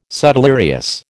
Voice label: "subdelirious", American